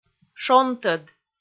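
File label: "шонтӧд", Komi, female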